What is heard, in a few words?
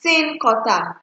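"tin cutter", Nigerian Pidgin, female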